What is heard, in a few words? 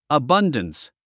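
"abundance", English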